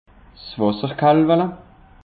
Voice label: "wasserkalwala", alsace; peche

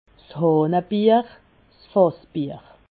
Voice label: "hahnebier", alsace

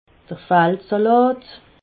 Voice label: "faldsalat", alsace

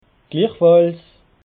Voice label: "glichfalls", alsace

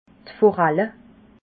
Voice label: "foralle", alsace

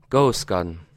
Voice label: "gas", alsace

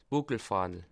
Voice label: "buckel", alsace

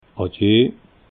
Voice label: "adje", alsace

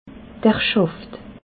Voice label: "schaft", alsace

